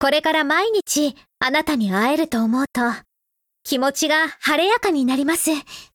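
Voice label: affinity Japanese; Springfield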